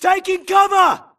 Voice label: grunt grenade response; imc; Titanfall 2